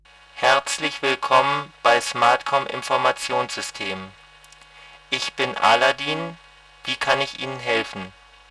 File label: Saw slow; SmartKom; Prototypen Stimme